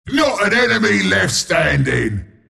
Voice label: announcer kill teamwipe; dlc bristleback killing spree